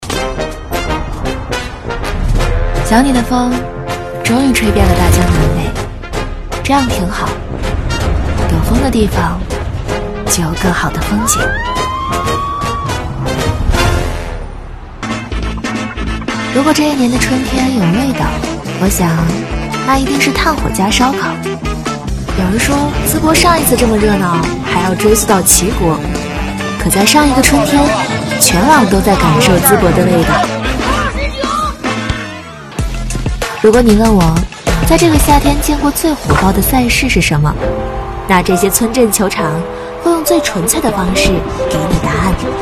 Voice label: 素人 讲述; 快手

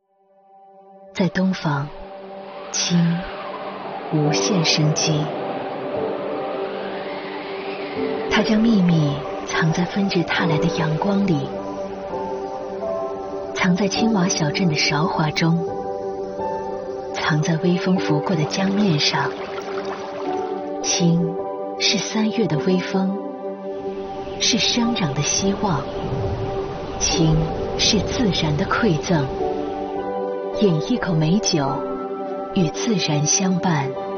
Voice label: 广告质感; 五粮液青

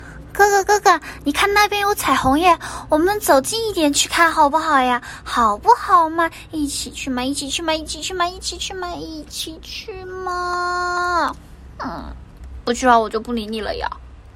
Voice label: 萝莉

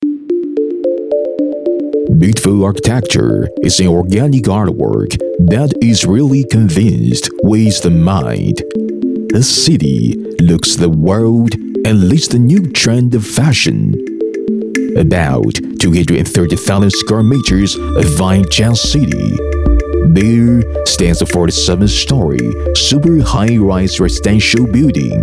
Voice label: 大气 自然 厚重 颁奖词 英文; 华润地产项目

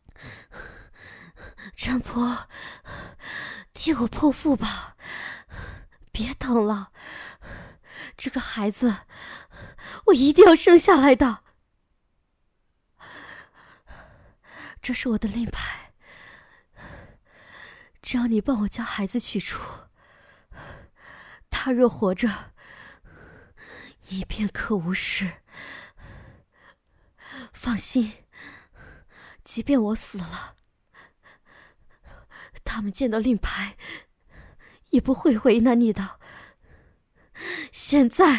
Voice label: 古风小说角色音

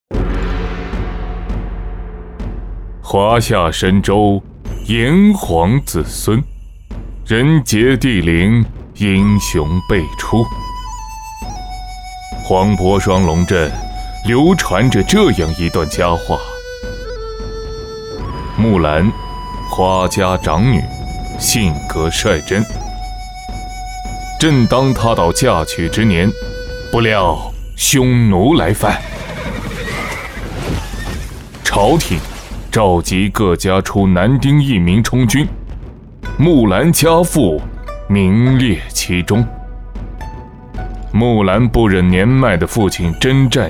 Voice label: 古风旁白

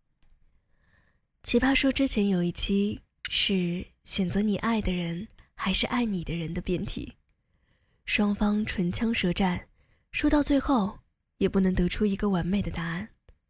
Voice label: 电台

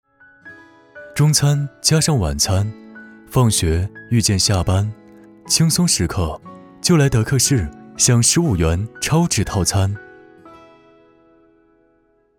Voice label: 轻松食客加音乐; 德克士

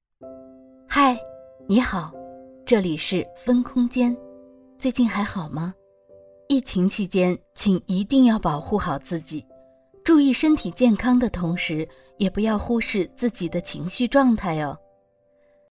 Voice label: 宣传 节选

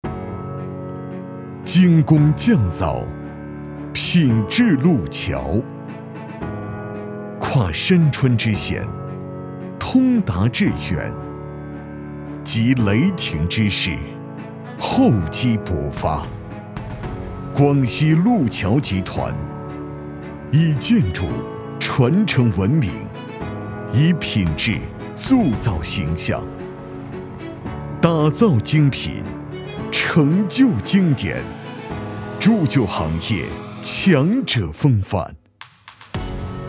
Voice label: 企业宣传; 广西路桥集团

